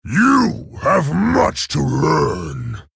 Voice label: male orc threaten

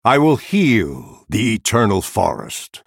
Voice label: male night elf start

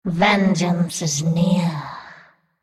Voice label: female naga thinking

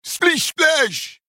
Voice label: male troll trigger tidal surge

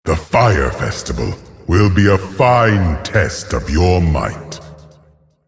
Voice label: male beast fire festival

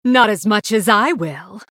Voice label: female blood elf mirror start

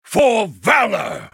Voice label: male human attack

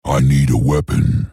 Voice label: male tauren error need weapon

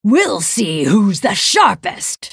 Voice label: female night elf start

